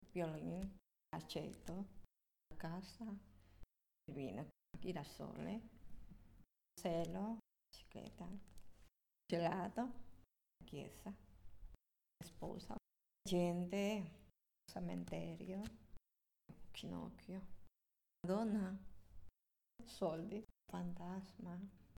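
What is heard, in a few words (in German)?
Zusammengefugte Worter Schnell Italienisch, female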